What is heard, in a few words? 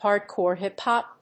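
"Hardcore hip hop", English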